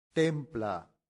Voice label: "templa", latin; santillana